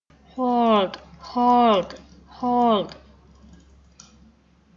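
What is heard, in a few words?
"hold", spoken word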